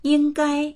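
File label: "ying1gai1", Chinese; yīnggāi; 应该; 能; 当然～すべきだ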